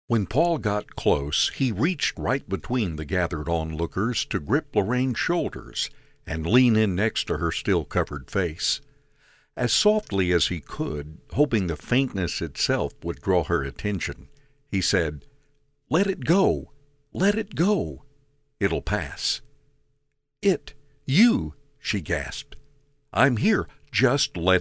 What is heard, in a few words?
Whisper